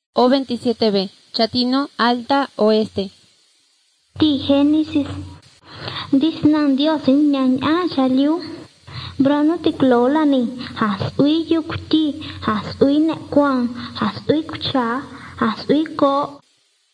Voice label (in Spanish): spanish mexico